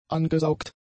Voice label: "angesaugt", German; adjectives

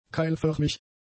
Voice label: "keilförmig", German; adjectives

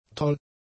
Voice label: "toll", German; adjectives

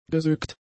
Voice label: "gesögt", German; adjectives

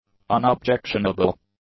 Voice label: "unobjectionable", English; adjectives

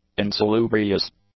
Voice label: "insalubrious", English; adjectives

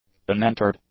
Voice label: "unentered", english; adjectives

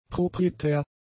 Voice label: "proprietär", German; adjectives

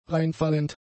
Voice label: "reinfallend", German; adjectives

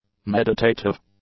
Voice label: "meditative", english; adjectives

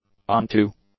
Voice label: "onto", English; adjectives

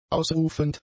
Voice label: "ausrufend", German; adjectives

